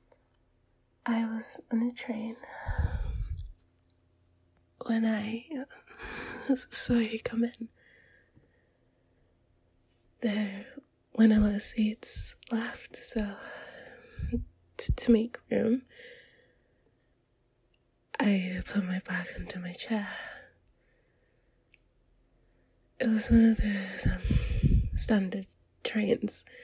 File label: F4A British narrative moanin; sitting together on a train; legs touching; begging; mention of public use; Just a touch